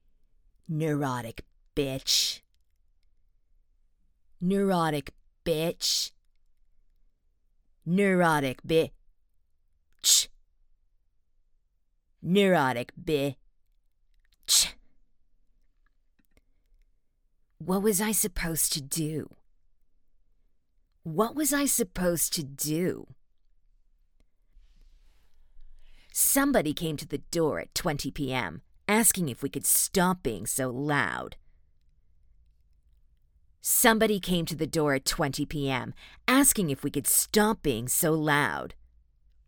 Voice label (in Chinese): 暗黑, 角色